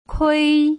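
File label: "kui", Putonghua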